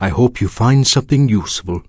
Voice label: Draenei Male Vendor

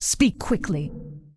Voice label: Undead Female Warrior Greeting; NPC

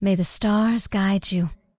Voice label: Night Elf Female Standard Farewell

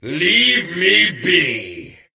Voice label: Death Knight Male Med Farewell

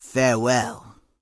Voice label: Orc Female Standard NPC Farewell

